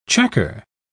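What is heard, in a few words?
"chequer", British English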